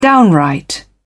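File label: "downright", British English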